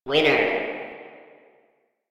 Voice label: Arcade Voices; Warframe; Ambience; Nineteen Ninety Nine